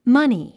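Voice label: "money", English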